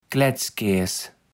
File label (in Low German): "Klättskääs", kaevels platt